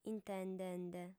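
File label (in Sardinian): "intendende", female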